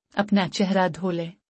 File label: Wash your face. Urdu; Time and Routine